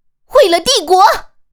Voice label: 使用技能, BattleCry; ycrpg

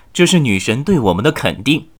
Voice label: 升级, 年轻的修士, BattleCry; ycrpg

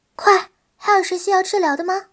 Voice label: 失败, battle cry; ycrpg; 南宫五月

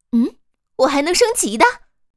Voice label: 升级, BattleCry; ycrpg

